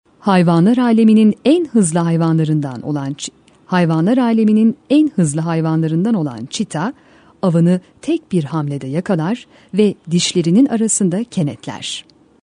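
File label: Female Turkish IVR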